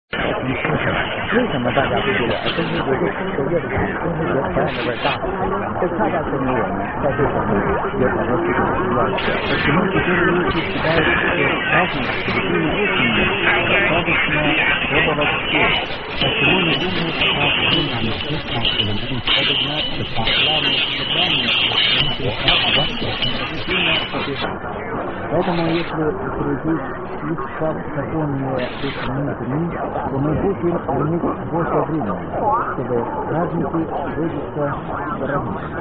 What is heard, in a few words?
Russian